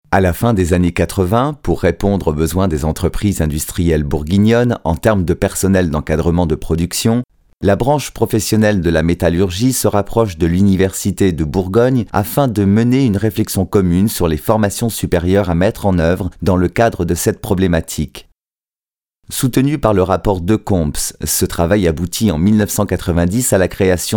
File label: french european male e learning